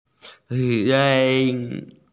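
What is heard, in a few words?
"sai1", Mandarin Chinese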